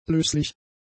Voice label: "löslich", German; adjectives